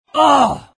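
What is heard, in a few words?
pain, Chinese; Invo; dota2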